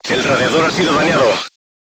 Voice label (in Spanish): voice message radiator damaged, spanish